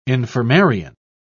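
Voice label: "infirmarian", British English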